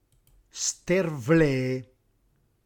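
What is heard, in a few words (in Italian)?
"stervlê", Reggiano; obliquo, storto; sbilenco, sciancato; reggiane; dialetto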